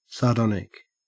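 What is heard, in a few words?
"sardonic", Australian English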